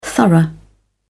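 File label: "thorough", British English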